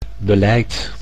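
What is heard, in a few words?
"beleid", Dutch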